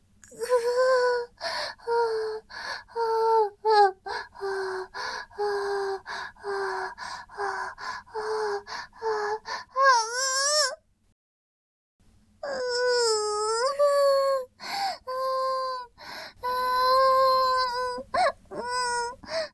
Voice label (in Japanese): 絶頂まで, ASMR; イクたびにわけて